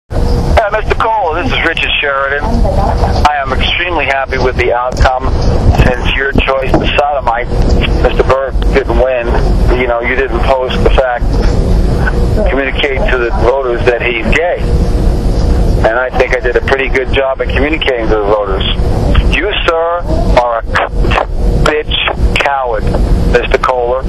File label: voicemail